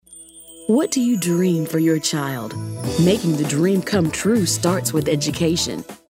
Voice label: cool jazzy